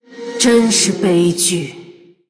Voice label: Female BloodElf Oops; ▶真是悲剧…… ▶How tragic.; 虚空之影瓦莉拉; 失误